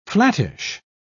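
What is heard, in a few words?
"flattish", British English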